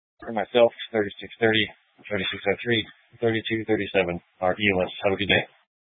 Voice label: Police 1 Dispatch

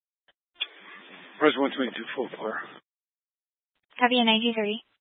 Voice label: Grand Junction Fire Department Dispatch

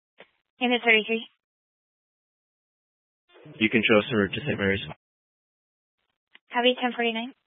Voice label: Fire Dispatch, scanner

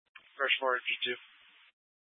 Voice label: Fire Dispatch

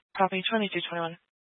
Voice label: Grand Junction Fire Department Dispatch; scanner

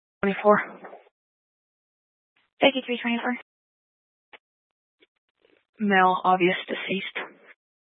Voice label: Sheriff Dispatch, scanner; Mesa County

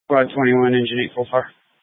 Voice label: Fire Department Dispatch, scanner

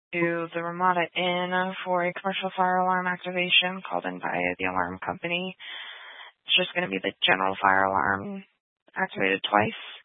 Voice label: Grand Junction Fire Department Dispatch

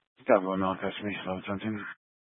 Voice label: Police 1 Dispatch

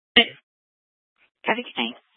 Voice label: Sheriff Dispatch, scanner; Mesa County

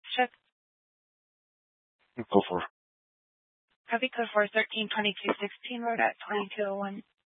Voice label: Sheriff Dispatch, Scanner audio recording

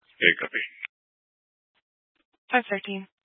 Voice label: Fire Department Dispatch, scanner; Grand Junction

